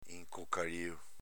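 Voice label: "incocalio", Venetian dialect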